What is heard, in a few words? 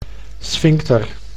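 "sphincter", Dutch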